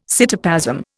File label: "cytoplasm", Indian